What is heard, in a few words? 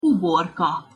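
"uborka", Hungarian pronunciation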